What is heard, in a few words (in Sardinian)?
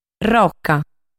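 "Roca", Sardinian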